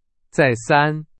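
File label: Chinese, vocabulary; hsk4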